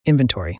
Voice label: "inventory" us male; everyone-can-use-english